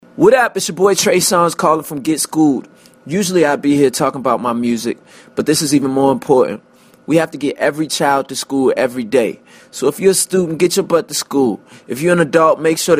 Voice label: voicemail

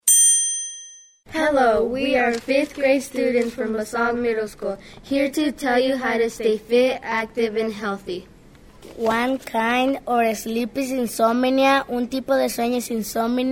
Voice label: mono spanish english radio ready

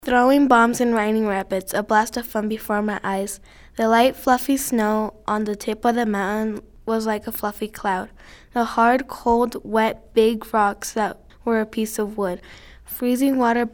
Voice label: voices group

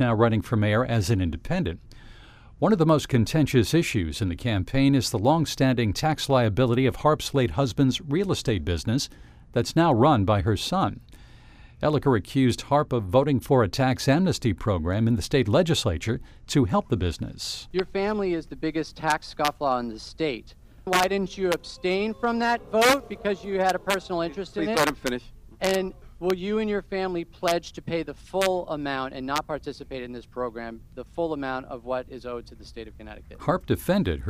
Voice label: debate

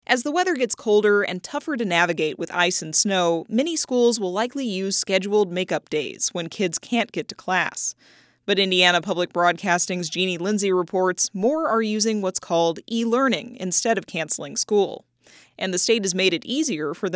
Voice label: elearning spot

